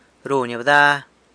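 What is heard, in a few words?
"runy bda", San Lucas Quiaviní Zapotec; casts a shadow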